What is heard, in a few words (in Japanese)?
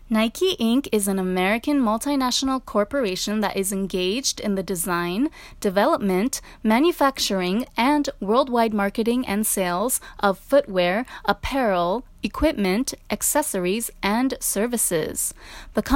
英語